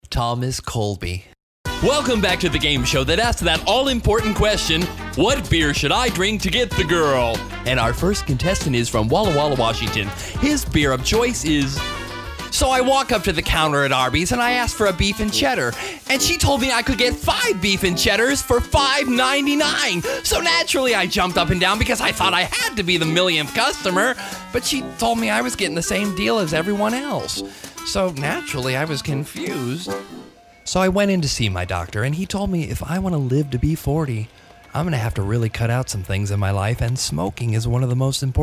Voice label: commercial voice over